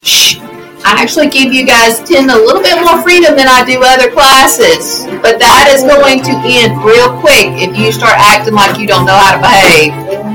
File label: yelling imposter